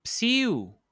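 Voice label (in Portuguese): "psiu!", português europeu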